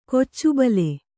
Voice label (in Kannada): "ಕೀಚುಬಾಲ", kannada